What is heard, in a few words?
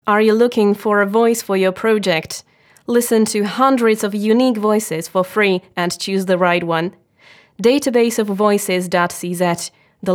ENG; hlasem voiceover; audio database